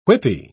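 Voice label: "whippy", British English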